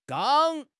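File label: "gang1", Mandarin Chinese; HSK4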